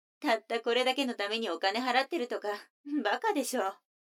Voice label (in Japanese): 女の子 台詞